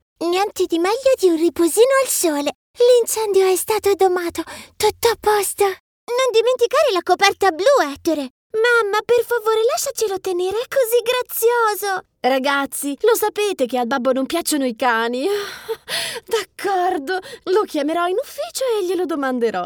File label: KID voice